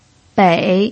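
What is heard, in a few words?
"bei", pinyin, third tone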